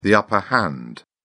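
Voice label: "upper hand", British English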